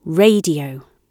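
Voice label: "radio", British English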